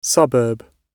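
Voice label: "suburb", British English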